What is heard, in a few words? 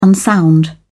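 "unsound", British English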